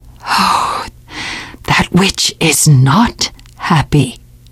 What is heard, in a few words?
witch getting angry, teengirl; survivor voice